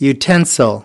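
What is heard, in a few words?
"utensil", American English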